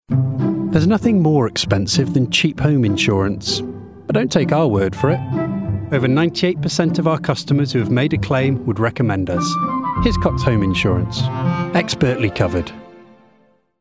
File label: COMMERCIAL Insurance; Hiscox